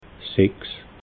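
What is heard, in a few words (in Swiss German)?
"sechs", Alsatian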